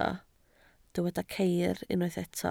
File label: "ceir", Welsh